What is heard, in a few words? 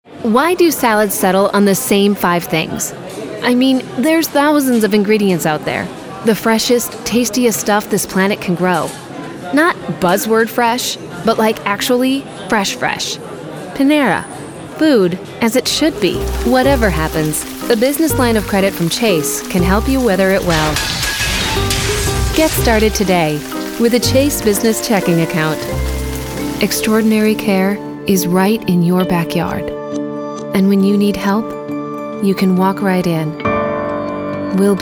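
commercial vo; vommercial vo demo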